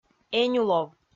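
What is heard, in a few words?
"энюлов", Komi, female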